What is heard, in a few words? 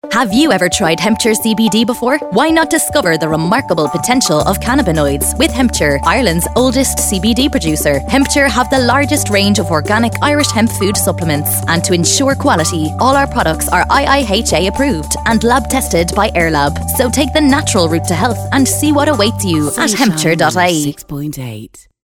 Advert; Hempture